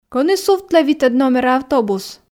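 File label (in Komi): кӧні сувтлӧ витӧд номера автобус, Komi, female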